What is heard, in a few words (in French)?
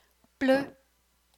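"bleu", French; couleur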